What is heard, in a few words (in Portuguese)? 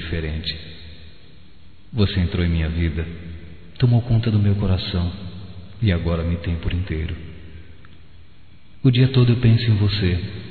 Surpresa de Aniversário Masculino, Só Voz; Telemensagens; Telemensagens 2017